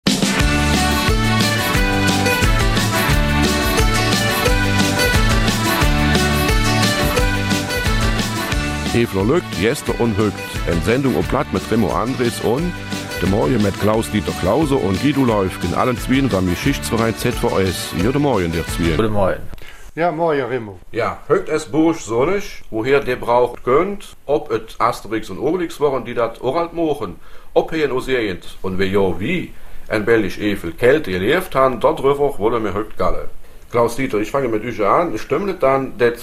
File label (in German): Mundart; Kelten in der Belgischen Eifel